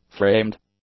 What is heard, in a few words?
"framed", English; adjectives